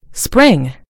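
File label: "spring", American English; gcompris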